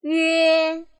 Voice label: "yue", pinyin